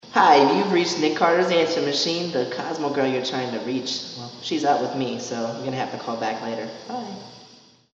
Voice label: answering machine